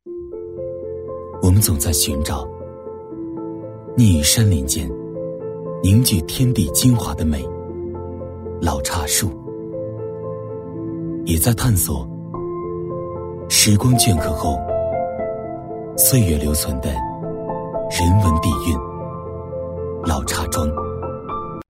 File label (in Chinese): 男C6-茶广告 磁性, 年轻全能 质感磁性